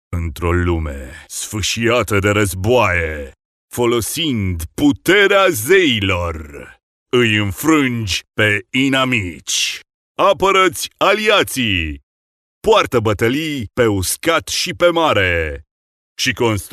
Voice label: male romanian voice over talent character

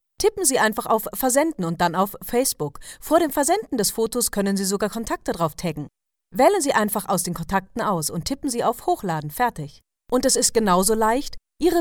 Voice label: female german voice over talent upbeat

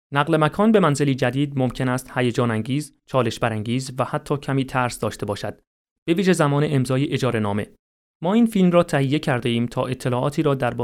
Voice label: male farsi voice over talent narrative

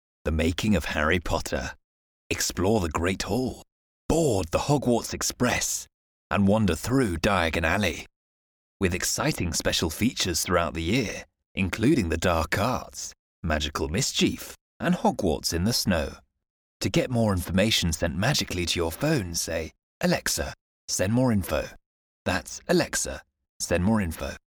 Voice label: male british voice over upbeat, english british

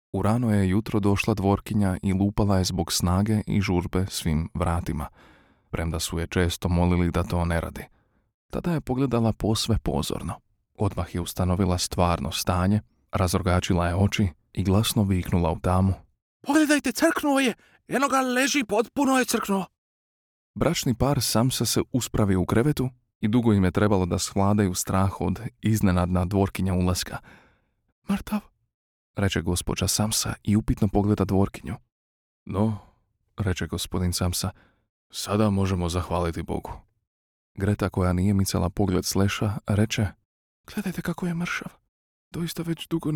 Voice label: male croatian voice over character

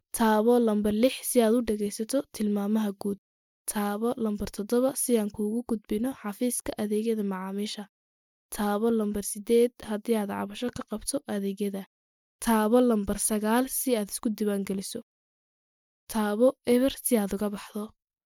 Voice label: female somali voice over upbeat

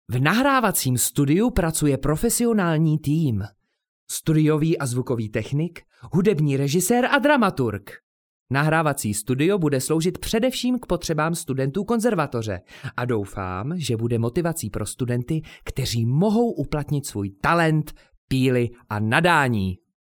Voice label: male czech voice over upbeat

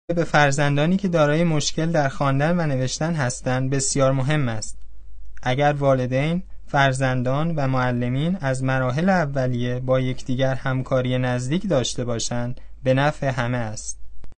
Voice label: male farsi voice over talent narrative

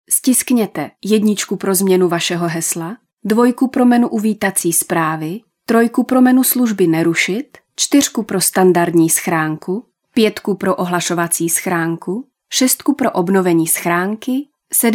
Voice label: female czech voice over narrative

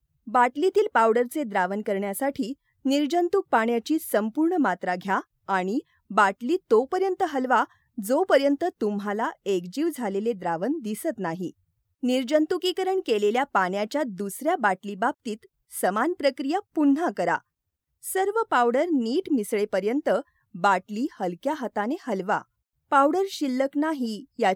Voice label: female marathi voice over narrative

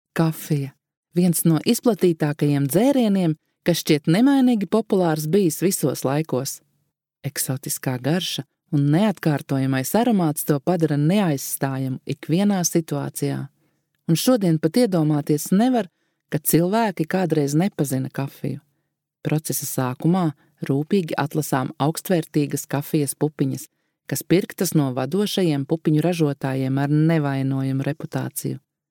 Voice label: female latvian voice over upbeat

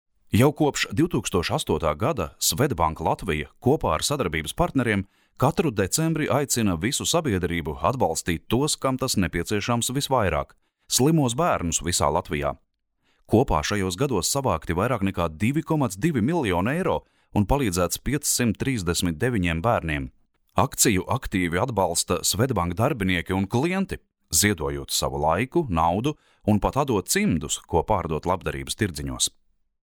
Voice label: male latvian voice over talent narrative

